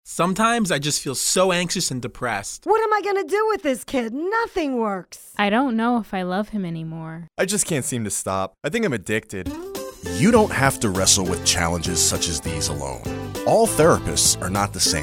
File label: radio ad